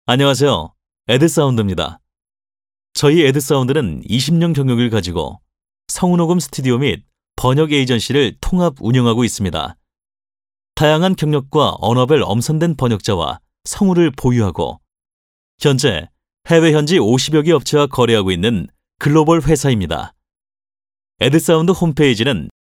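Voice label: Korean male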